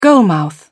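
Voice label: "goalmouth", British English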